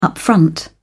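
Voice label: "upfront", British English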